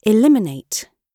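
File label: "eliminate", British English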